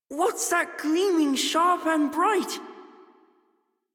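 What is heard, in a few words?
Child Rhymes Fear Child Male; Warframe; Duviri; Childrens Rhymes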